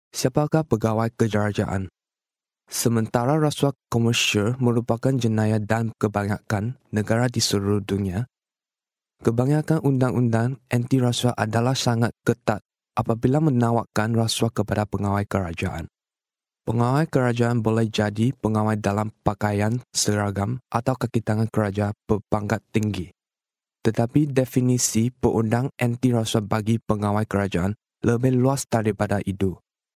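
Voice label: Malay Malaysia male narrative; voice over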